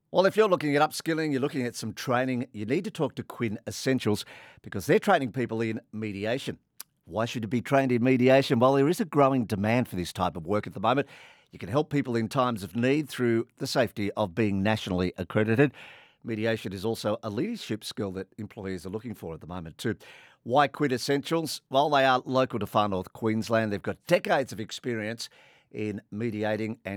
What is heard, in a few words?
radio promotion